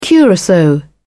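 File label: "curacao", British English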